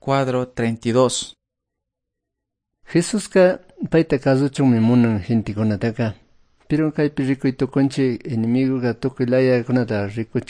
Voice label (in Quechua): good news quichua cayambe